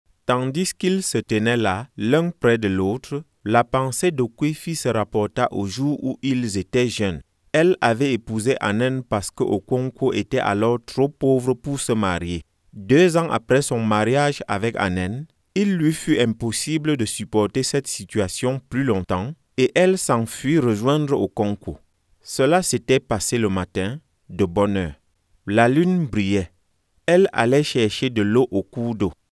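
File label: African French, male, Audiobook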